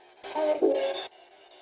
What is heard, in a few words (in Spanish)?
VOZ DE NIÑO